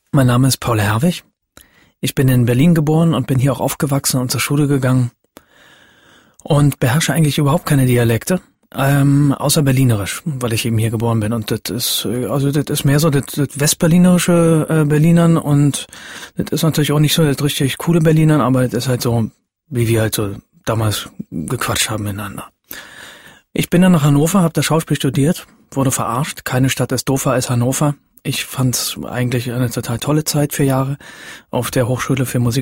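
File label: german narration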